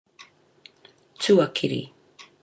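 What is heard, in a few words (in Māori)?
"tuakiri", Māori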